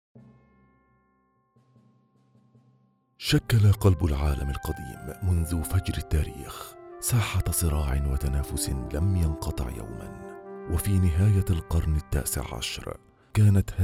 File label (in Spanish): corporativo